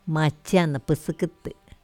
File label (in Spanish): muchas sachavacas son